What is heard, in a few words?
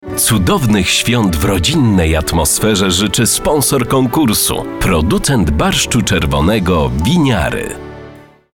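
voice male advert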